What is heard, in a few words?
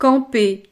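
"camper", French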